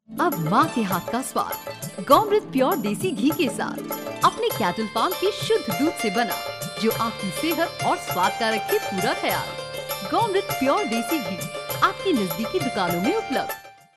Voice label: female hindi voice over sales

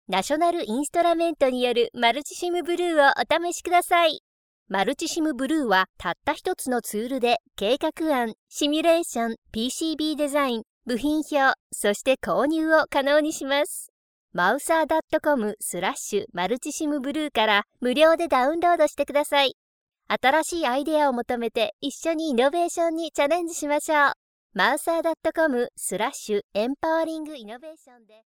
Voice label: female japanese voice over sales

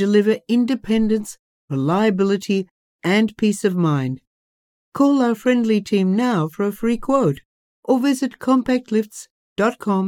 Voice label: female australian voice over narrative

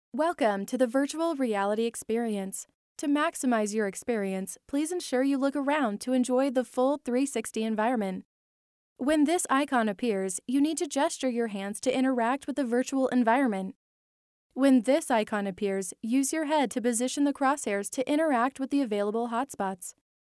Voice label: female american voice over narrative

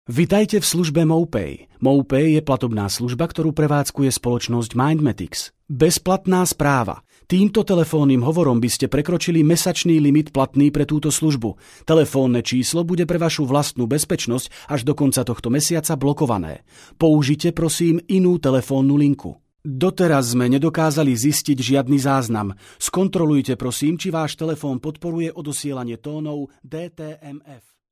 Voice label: male slovakian voice over talent narrative

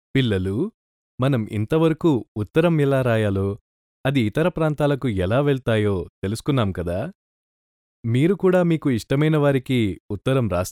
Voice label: male telugu voice over talent narrative

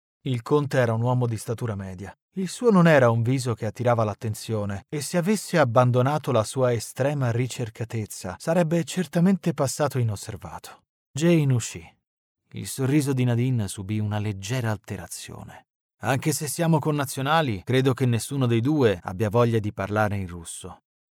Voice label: male italian voice over talent narrative

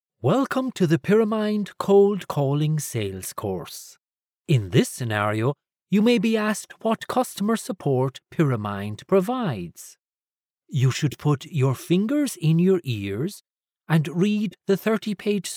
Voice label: male irish voice over talent narrative

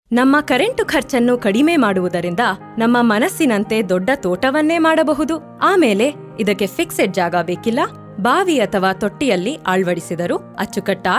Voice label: female kannada voice over upbeat

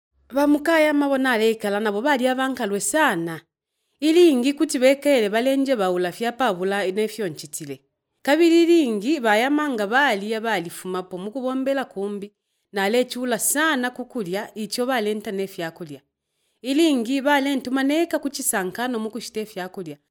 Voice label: female bemba voice over narrative

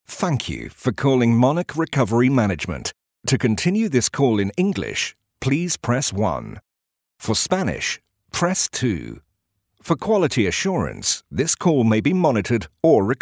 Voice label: male british voice over upbeat, english british

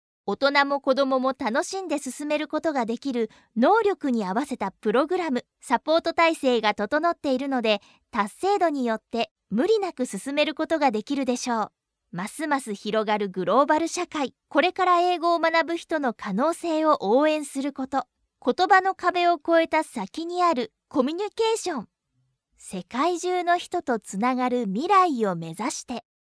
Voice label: female japanese voice over narrative

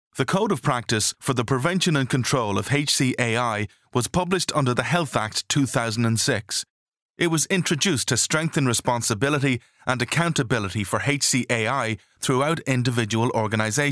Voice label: male irish voice over talent narrative

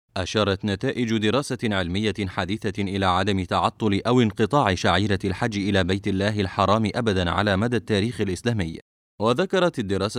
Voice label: male arabic voice over narrative

